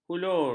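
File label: "hulur", Malay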